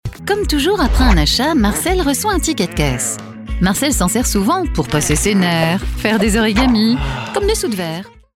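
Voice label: French, female, explainer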